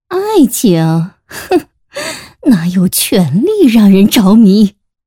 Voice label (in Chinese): 女 游戏CG角色 御姐